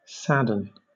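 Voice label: "sadden", British English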